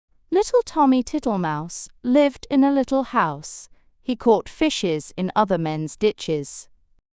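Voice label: female British; Little Tommy Tittlemouse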